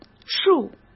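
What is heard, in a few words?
"树", Mandarin Chinese; hsk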